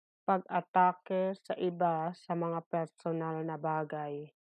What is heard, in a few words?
Tagalog; attacking others on personal matters - pag-atake sa iba sa mga personal na bagay